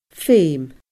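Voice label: "geum", Scottish Gaelic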